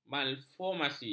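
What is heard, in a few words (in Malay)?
"malformasi", Malay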